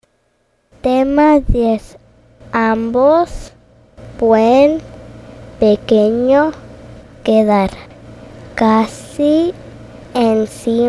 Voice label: High Frequency Spanish